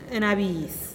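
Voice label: nabiz dry, Teotitlán del Valle Zapotec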